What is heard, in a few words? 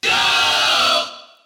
"yo", group of men; ooh ahh